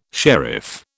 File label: "sharif", British